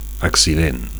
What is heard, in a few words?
"accident", Catalan; (m) accident; (m) [LING] inflection